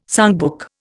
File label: "songbook", Indian